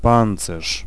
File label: Armour, vocals pl; fan made